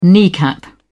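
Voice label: "kneecap", English, British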